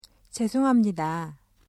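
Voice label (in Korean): "죄송합니다", Korean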